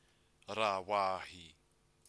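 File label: "rawahi", Māori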